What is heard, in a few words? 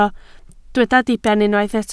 "diben", Welsh